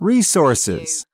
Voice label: "resources", US male